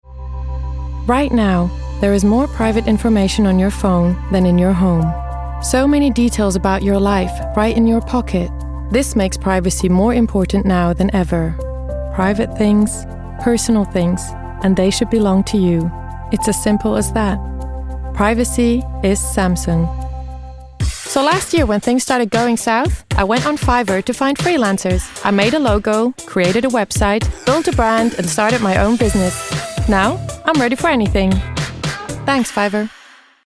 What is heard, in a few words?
English